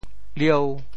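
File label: "lieu5", Teochew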